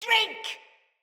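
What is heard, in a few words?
Attack Corrupted Sprouts, en; Battle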